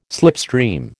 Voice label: "slipstream", American